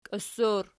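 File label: "kios" plural, Archi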